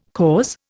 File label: "cause", Indian